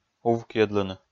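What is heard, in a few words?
"увкйӧдлыны", Komi, male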